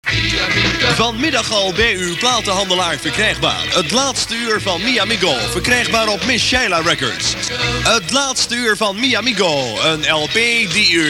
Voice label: commercial